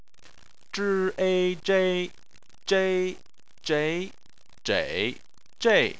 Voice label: "zhei", Chinese

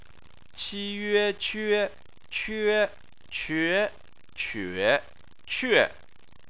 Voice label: "que", chinese

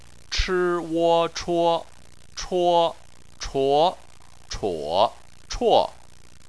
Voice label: "chuo", Chinese

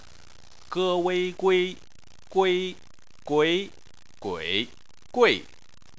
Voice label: "gui", chinese

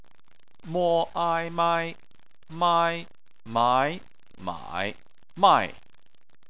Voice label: "mai", chinese

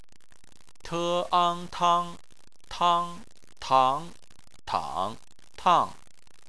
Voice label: "tang", chinese

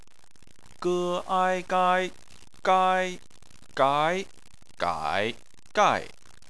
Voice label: "gai", chinese

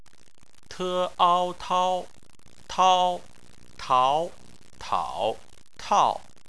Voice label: "tao", chinese

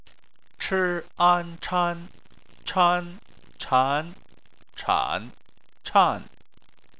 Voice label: "chan", Chinese

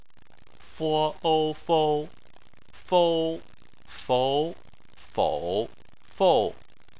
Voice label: "fou", chinese

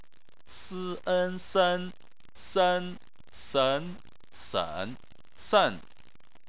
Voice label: "sen", chinese

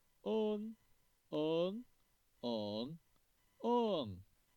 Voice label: "eng", chinese

